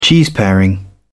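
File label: "cheeseparing", British English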